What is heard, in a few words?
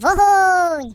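"Fire", British English; Default uk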